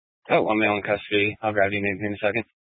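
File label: Police 1 Dispatch, Scanner audio recording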